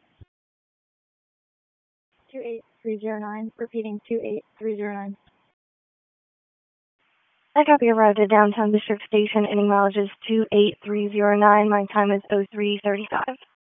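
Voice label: Raleigh Police Northeast District Dispatch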